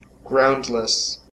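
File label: "groundless" US English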